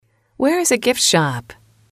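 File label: "where is a gift shop", English, female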